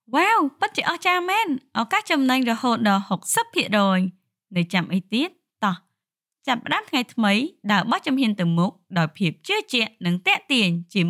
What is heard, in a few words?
female khmer voice over sales; cambodian